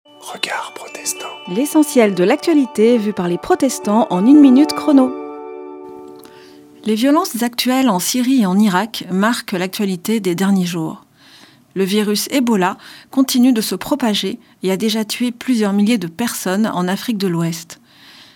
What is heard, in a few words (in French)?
Spot radio; Regards protestants